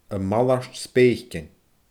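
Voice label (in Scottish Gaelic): "a malairt speeicean", Scottish Gaelic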